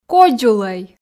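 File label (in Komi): "кодзулӧй", Komi, female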